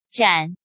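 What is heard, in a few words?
"Zhǎn", Chinese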